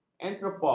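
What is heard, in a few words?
"entrepot", Malay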